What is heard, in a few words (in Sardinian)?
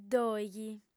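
"dòighi", female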